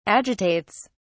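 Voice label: "agitates", British English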